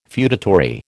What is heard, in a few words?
"feudatory", American